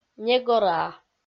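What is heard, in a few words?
"негораа", Komi, female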